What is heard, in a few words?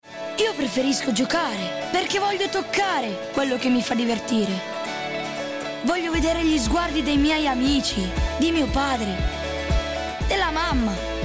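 Italian, child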